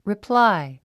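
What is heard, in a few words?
"reply", English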